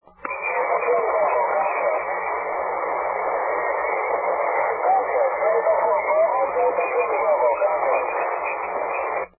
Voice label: 160m SSB